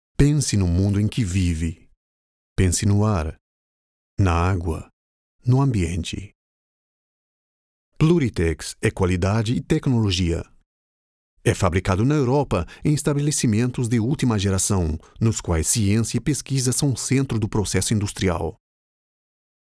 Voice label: Product Presentation Brasil